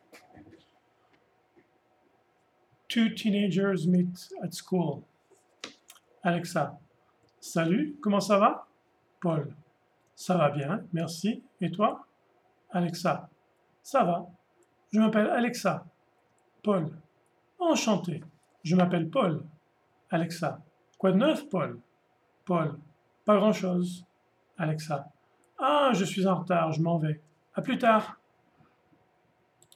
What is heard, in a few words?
Dialogue two teenagers at school